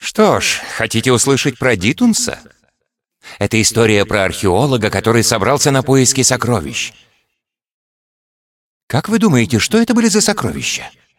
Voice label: cinematic voice, ru; lineage2

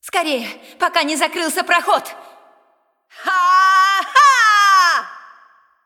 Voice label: cinematic voice, ru; lineage2

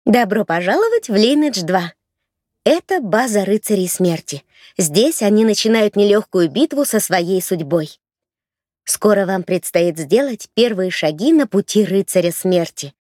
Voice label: tutorial voice, ru; lineage2

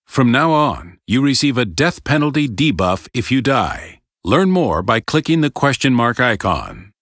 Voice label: tutorial voice; lineage2

